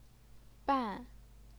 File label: "ban4", Chinese pinyin